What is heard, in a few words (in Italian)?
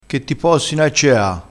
"possino", crespinese